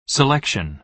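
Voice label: "selection", American English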